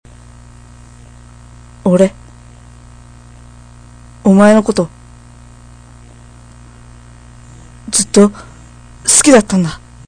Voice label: love m